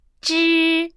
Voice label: "zhi", Chinese

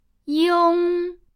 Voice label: "iong", Chinese

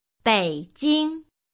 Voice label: beijin, Chinese

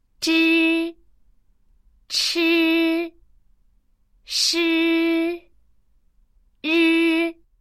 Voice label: zhi chi shi ri, Chinese

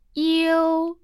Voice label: "iou", Chinese

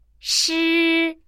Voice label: "shi", Chinese

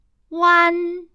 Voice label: "uan", Chinese

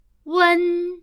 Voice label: "uen", Chinese

